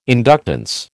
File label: "inductance", American